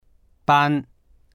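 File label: "ban1", Chinese; 搬; 運ぶ、引っ越しする; dictionary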